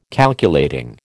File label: "Calculating", American